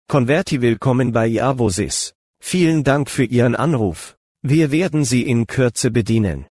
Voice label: tono profesional, Voz hombre con I.A., Locución en alemán y tono profesional; Claus

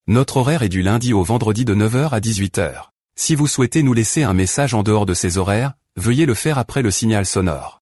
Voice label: tono natural, Voz hombre con I.A., Locución en francés y tono natural; Bernard

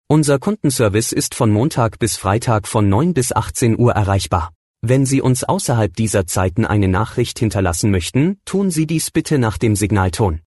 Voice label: Tono natural, Voz hombre con I.A., Locución en alemán y tono natural; Conrad

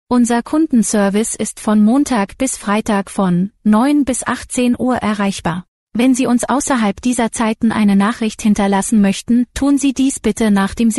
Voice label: Tono natural, Voz mujer con I.A., Locución en alemán y tono natural; Mag

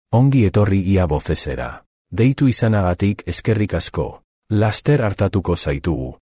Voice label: tono profesional, Voz hombre con I.A., Locución en euskera y tono profesional; Ander

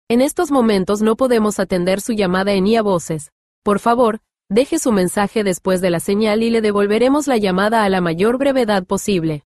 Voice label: Voz clonada con I.A., Locución en español latino y profesional

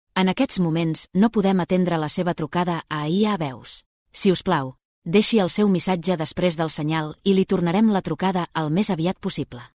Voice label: tono natural, Voz mujer con I.A., Locución en catalán y tono natural; Seraphina

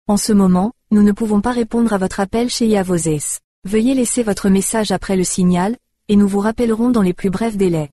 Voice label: tono profesional, Voz mujer con I.A., Locución en francés y tono profesional; Carine

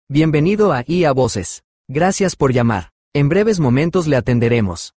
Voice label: Voz hombre con I.A., Locución en español latino y tono natural; Lucas

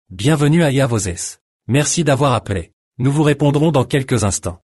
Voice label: tono profesional, Voz hombre con I.A., Locución en francés y tono profesional; Alain

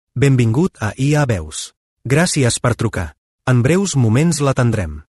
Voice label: tono profesional, Voz hombre con I.A., Locución en catalán y tono profesional; Brian

